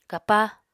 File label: "kapa", Apatani